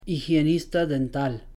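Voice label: "higienista dental", Spanish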